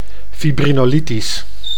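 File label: "fibrinolytisch", Dutch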